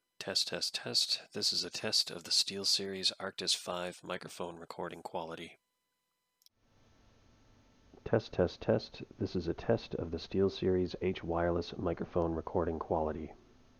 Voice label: Clearcast Microphone Comparison Test